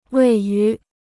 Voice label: "位于", Chinese

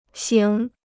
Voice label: "醒", Chinese

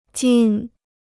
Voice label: "金", Chinese

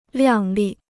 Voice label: "亮丽", Chinese

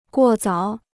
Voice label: "过早", Chinese

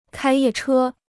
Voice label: "开夜车", Chinese

